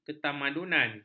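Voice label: "ketamadunan", Malay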